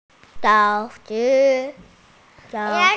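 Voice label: "ya2 chi3", Mandarin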